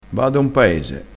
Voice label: "paese", crespinese

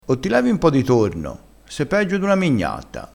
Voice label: "mignatta", crespinese

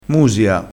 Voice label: "musia", crespinese

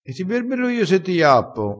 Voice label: "berbera", vocabolario dialettale

